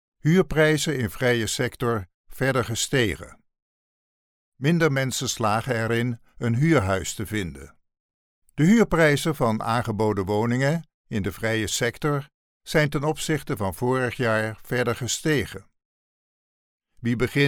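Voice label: Dutch, Netherlands, male, voice over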